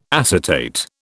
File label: "acetate", British